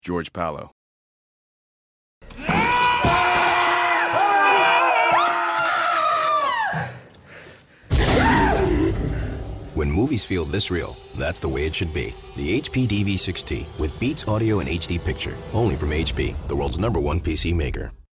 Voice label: commercial; Innovative LA